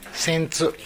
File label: "sentu", minamiyamagata